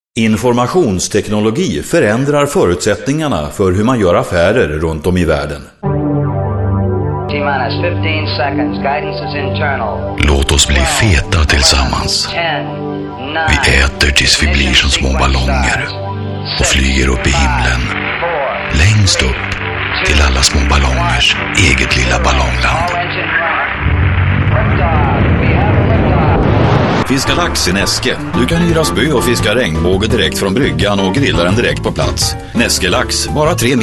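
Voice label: swedish male commercial; voice demos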